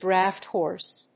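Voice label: "draught horse", English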